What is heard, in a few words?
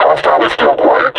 reconnoiter search, vo combine suppressor; Half Life Alyx